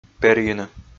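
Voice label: "перйыны", Komi, male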